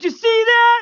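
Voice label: female "did ya see that", pedestrian; cs2d; cityrp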